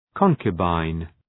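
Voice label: "concubine", English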